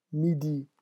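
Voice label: "midi", French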